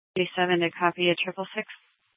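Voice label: Police Department Dispatch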